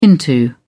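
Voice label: "into", British English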